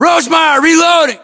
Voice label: inform reloading, US